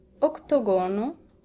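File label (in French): "octogone", French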